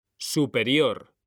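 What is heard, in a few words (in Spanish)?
"superior", male; mekanta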